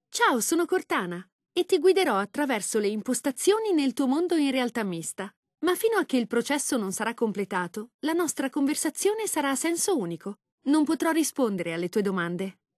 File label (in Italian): lang it it, voiceovers